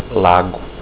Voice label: lake, portuguese